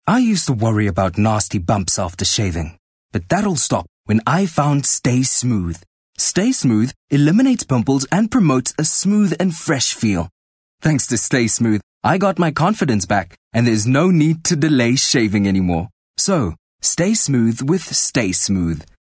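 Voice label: English Softsell South African Neutral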